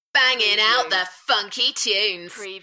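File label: UK female, Enthusiastic, voice drop; Banging the funky tunes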